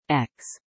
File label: X female